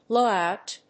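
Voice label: "blow out", English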